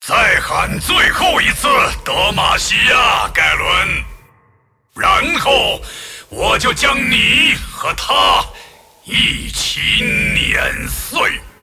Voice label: move, zh